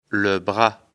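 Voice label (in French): "bras", French; afrikalan; lecture fr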